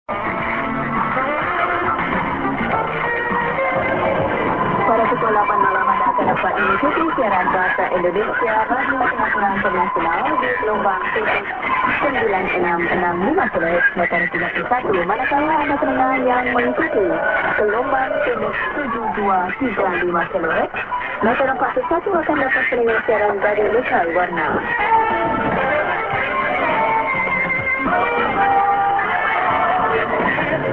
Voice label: St. ID+SKJ(women)->music->01'22":ID(man+women)->music->ID(women)->, Int. Indonesian